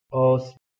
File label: "aas", Persian